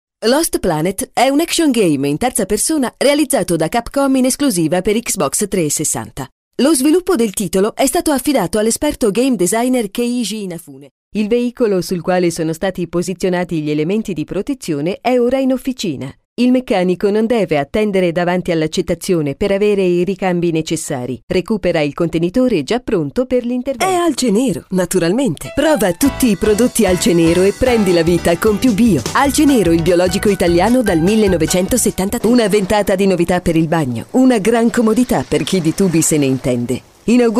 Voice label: ita f, voice samples informative